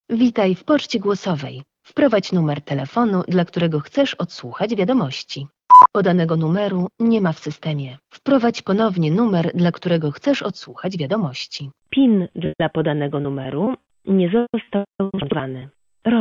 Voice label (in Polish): poczta głosowa; T-Mobile